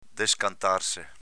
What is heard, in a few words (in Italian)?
"descantarse", dialetto veneto